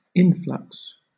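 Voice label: "influx", British English; pronunciations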